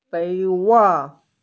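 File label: "fei2wo4", Mandarin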